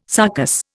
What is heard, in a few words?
"sarcous", Indian